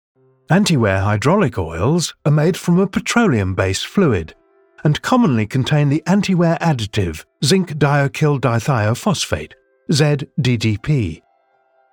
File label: E-learning